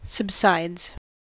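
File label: "subsides", US English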